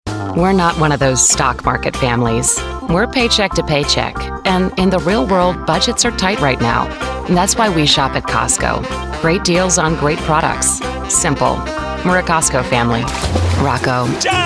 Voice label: Commercial

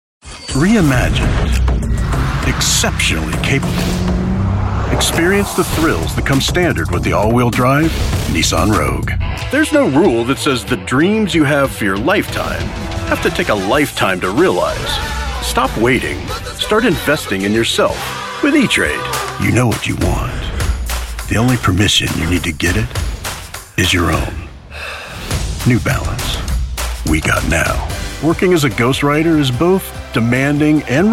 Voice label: commercials

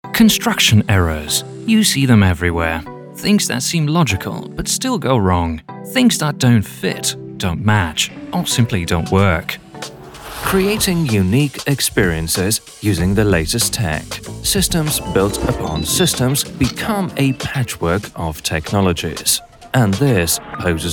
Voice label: English voiceover

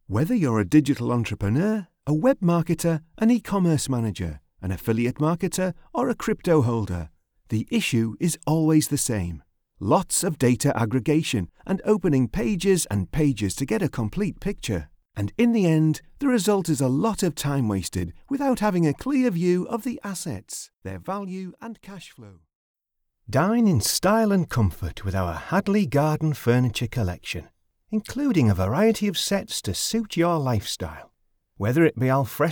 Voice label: Commercial

